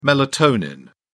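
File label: "melatonin", British English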